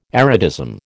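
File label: "erotism", American English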